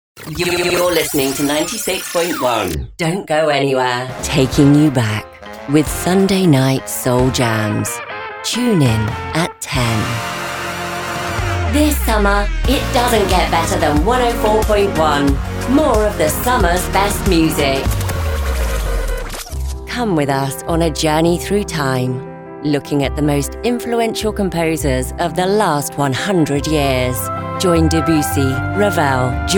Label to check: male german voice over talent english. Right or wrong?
Wrong